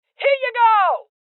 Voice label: Voices Throw Clip Female Old; Rebel Cops

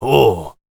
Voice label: warrior conversation emotion question

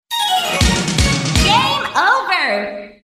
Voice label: Game Over (Female); Dance Super Station; arcade

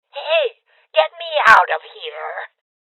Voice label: Voices Heavy Losses Female Old; rebel cops 2019 gamerip

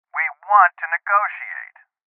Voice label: Voices Postpone Male; Rebel Cops

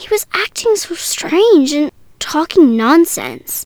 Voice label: Girl Worried; Abyss The Wraiths of Eden; Warehouse

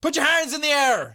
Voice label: Orders Shout Put Your Hands In The Air

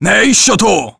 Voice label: Warrior emotion excited; Aragami

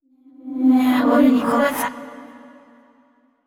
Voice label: VO thinking; yamiko

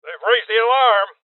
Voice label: Voices Alarm State Male Old; rebel cops 2019 gamerip

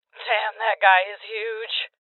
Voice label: Voices Heavy Spotted Female; rebel cops 2019 gamerip

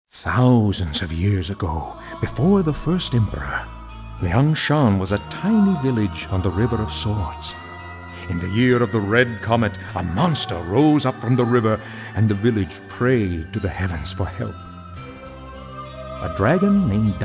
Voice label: narrative; liangshan

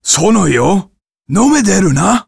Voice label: Warrior emotion curious

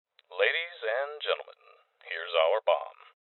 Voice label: Voices Bomb Spotted Male; Rebel Cops

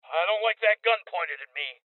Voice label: Hostage Taken Male; Voices HostageTaken Male

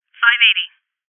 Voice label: Confirmation Female

